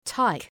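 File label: "tyke", English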